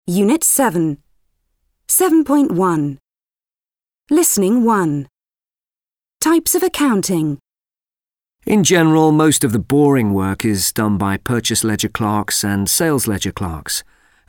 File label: English platform, english e-learning platform; VUB